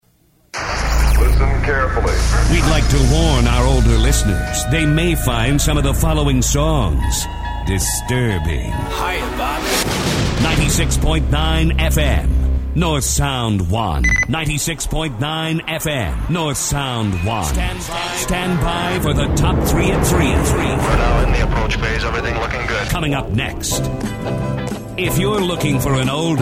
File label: Sweepers; Northsound One